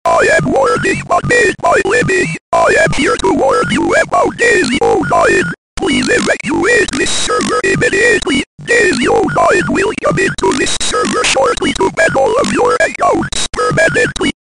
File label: warning bot; gorilla tag